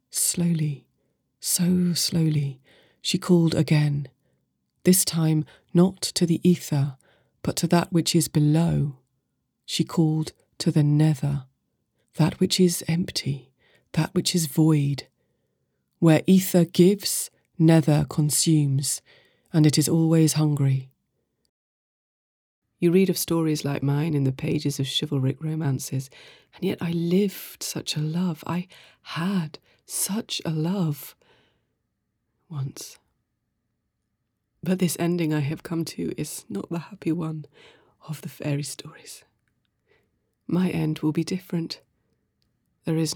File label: Narration